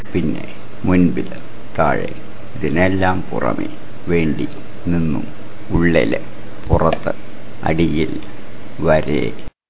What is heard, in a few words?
malayalam words